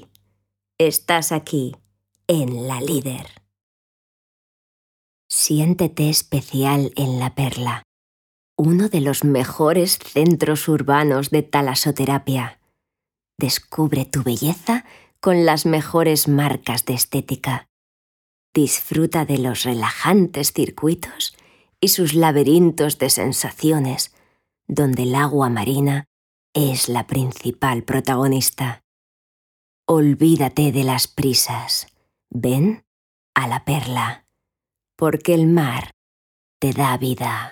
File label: female spanish castilian radio promo compilation; radio lider